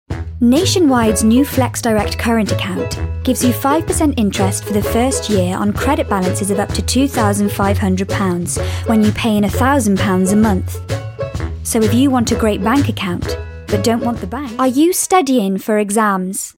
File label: Commercial